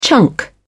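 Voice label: "chunk", British English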